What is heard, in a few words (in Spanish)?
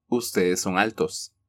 "Ustedes son altos", Spanish